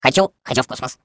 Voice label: Space core babble, ru; Core voice lines